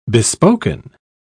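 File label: "bespoken", British English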